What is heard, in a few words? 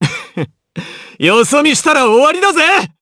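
voice, Japanese; Kibera; kingsraid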